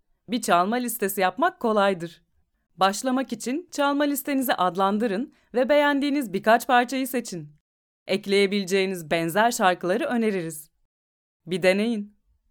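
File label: Turkish, Female, ELEARNING WEB PROMPTS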